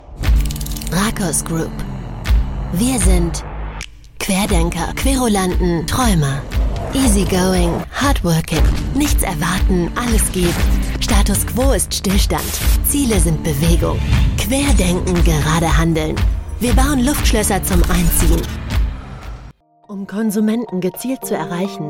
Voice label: German corporate videos